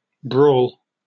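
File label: "brawl", British English; pronunciations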